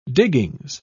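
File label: "diggings", en, British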